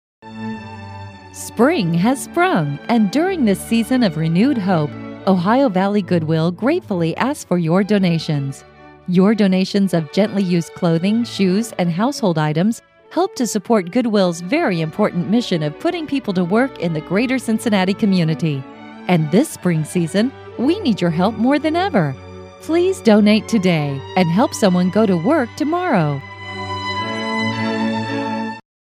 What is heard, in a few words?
charity, female voices; audio on hold